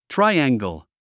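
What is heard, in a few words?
"triangle", English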